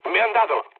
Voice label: aircraft damaged, mood low, speech; War Thunder

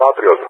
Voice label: "Patriot", ENG; AirDefence; Allied Flight; DCS

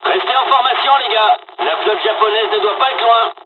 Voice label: dialog, dialogs french; War Thunder; midway

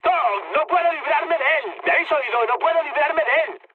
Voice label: aircraft damaged, mood high, speech; War Thunder

